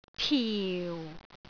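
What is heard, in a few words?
"tiu4", Cantonese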